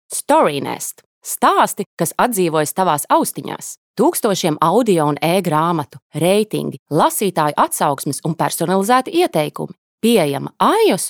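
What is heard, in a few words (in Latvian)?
Reklama, advert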